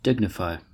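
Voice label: "dignify", US English; pronunciations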